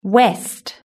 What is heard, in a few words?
"west", British English